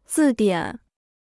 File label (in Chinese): "字典", Chinese